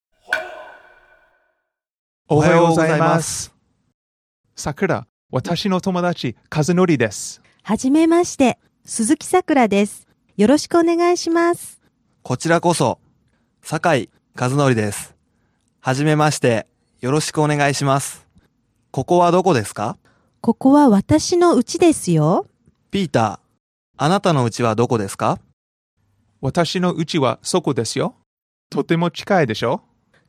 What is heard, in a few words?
dialog; JapanesePod101; Beginner Season 1